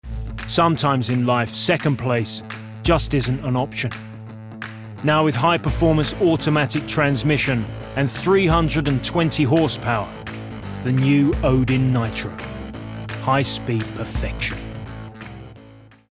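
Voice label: British English advert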